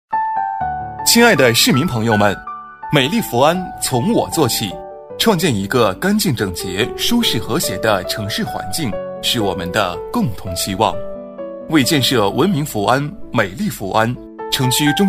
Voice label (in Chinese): 男 温馨提示 给居民的一封信; 年轻活力; 质感磁性